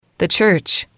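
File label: "the church", English, female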